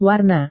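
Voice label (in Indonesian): "warna", Indonesian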